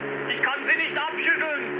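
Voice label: German aircraft damaged mood high, speech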